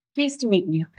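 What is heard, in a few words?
Voice Acting es, female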